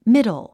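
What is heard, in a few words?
"middle", English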